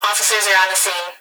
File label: Emergency Human Female Voice Police Dispatch Officers Are On The Scene; emergency effects